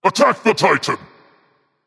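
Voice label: attack male berserker; smite; cuchulainn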